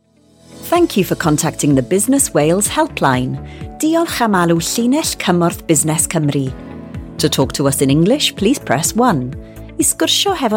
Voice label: welsh speaking voiceover welsh telephone prompts